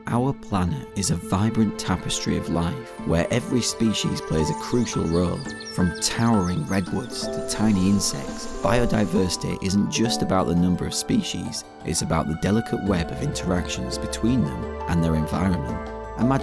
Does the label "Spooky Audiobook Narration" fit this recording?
No